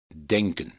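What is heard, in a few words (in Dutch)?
"denken", Dutch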